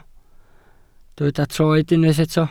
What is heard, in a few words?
"troed", Welsh